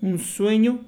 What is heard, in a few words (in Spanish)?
"un sueno", mx